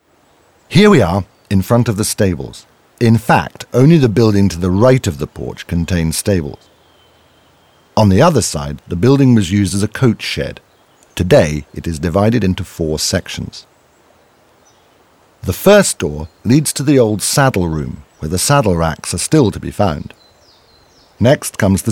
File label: audioguide en enfant; jardin; modave